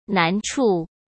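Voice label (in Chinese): "难处", Mandarin Chinese; chinese flashcards; hsk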